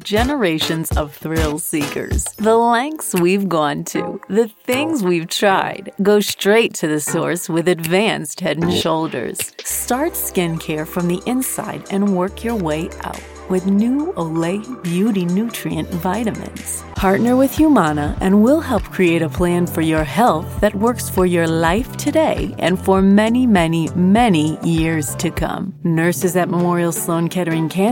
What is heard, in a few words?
VO Commercial, Voice Over